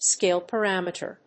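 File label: "scale parameter", English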